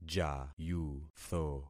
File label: "jayutho", concat syllables; Affixing experiment